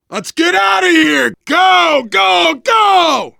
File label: arrive generic, survivor voice biker; Left 4 Dead 2